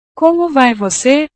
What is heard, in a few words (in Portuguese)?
"como vai voce", portugues